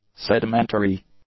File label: "sedimentary", English; adjectives